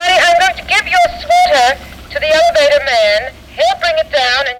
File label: Mothers calling children; Tony Schwartz Collection